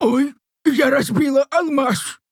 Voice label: Female Orc Play; Сумеречный геомант; Hearthstone; датамайн обновления 5.0